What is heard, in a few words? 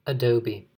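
"adobe", US English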